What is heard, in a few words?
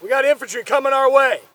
spot; bf3